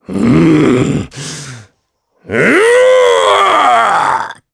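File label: vox Japanese; Kaulah; kingsraid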